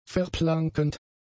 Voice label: "verplankend", german; adjectives

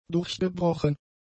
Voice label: "durchgebrochen", German; adjectives

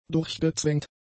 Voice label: "durchgezwängt", German; adjectives

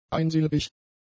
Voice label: "einsilbig", german; adjectives

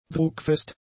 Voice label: "druckfest", German; adjectives

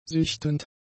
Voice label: "sichtend", German; adjectives

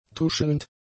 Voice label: "tuschelnd", German; adjectives

